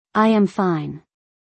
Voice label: I am fine, English; Basic Greetings and Courtesy

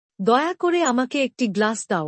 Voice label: Please give me a glass. - Bangla; Food and Eating

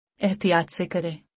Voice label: "Do it carefully", Urdu; Basic Greetings and Courtesy

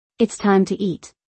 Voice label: It's time to eat, english; Time and Routine

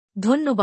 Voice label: thank you bangla; Basic Greetings and Courtesy

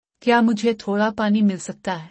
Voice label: Can I have some water - urdu; Food and Eating

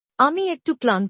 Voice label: I am a little tired, Bangla; Expressing Feelings

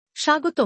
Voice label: "You're welcome", Bangla; Basic Greetings and Courtesy

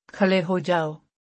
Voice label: Stand up, urdu; Simple Commands

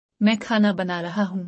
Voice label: I am cooking food. - Urdu; Food and Eating

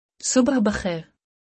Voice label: "Good Morning", Urdu; Basic Greetings and Courtesy

